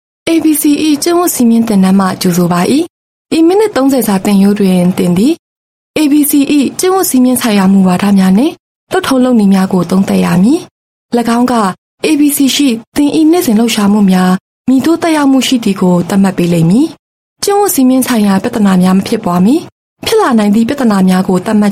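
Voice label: Burmese F E-Learning, female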